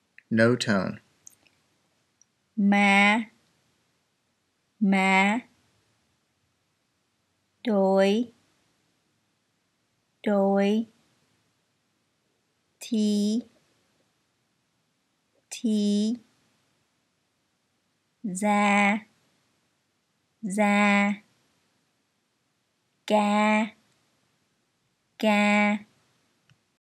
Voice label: no tone